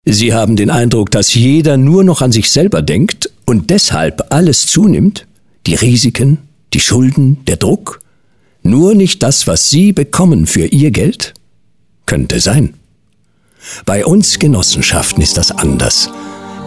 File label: radio spot